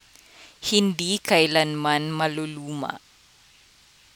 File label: tagalog